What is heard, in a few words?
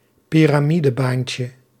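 "piramidebaantje", Dutch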